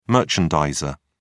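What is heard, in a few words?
"merchandiser", British English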